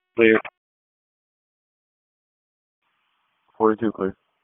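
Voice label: EMS Dispatch, p25; wake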